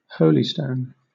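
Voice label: "holystone", British English; pronunciations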